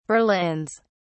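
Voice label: "berlins", British English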